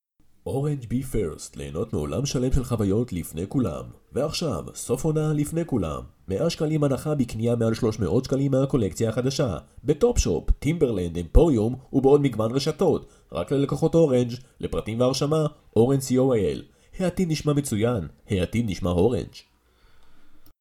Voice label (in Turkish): İbranice Erkek Ses; seslendirme